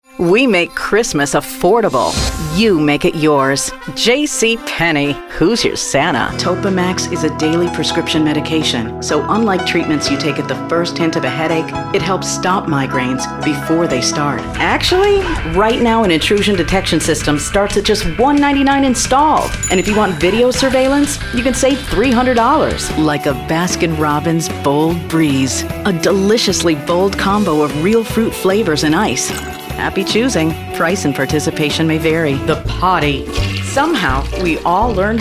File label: Commercial